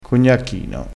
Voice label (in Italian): "cognacchino", crespinese